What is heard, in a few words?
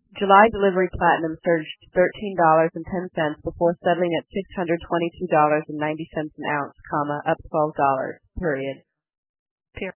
female female